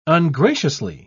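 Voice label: "ungraciously", British English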